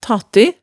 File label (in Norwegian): "tatte", hemsedalsmaalet tale